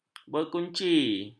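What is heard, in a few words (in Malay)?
"berkunci", Malay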